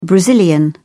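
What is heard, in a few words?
"brazilian", British English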